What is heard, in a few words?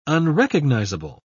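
"unrecognizable", British English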